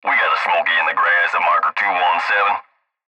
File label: Cb Trucker Radio; Cb Radio Male We Got A Smokey In The Grass At Marker 217; Radio Voice; Blastwave